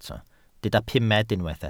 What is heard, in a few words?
"pumed", welsh